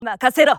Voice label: japanese Idle; azurlane-hoi4; azurlane-tj